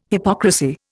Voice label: "hypocrisy", Indian

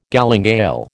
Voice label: "galingale", American

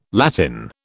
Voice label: "Latin", British

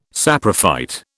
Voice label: "saprophyte", British

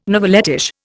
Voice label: "novelettish", Indian

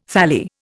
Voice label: "thalli", Indian

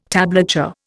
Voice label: "tablature", Indian

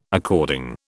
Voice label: "according", British

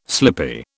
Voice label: "slippy", British

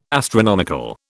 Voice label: "astronomical", British

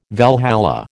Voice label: "Valhalla", American

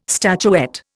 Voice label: "statuette", Indian

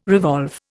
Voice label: "revolve", Indian

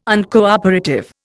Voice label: "Uncooperative", Indian

